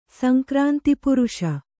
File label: "ಸಂಕ್ರಾಂತಿಪುರುಷ", kannada